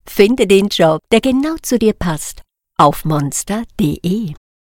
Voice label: german commercial; Allemand (Suisse); France